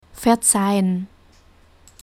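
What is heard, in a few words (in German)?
"verzeihen", German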